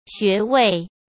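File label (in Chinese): "穴位", Mandarin Chinese; hsk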